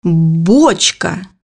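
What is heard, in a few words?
"barrel", Russian; bukvar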